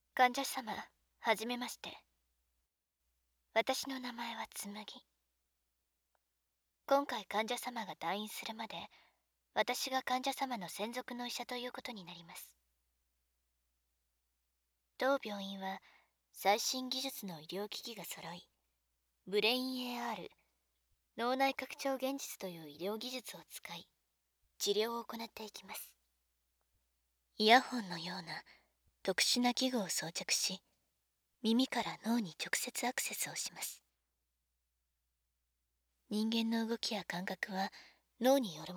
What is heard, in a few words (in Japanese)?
挨拶, ASMR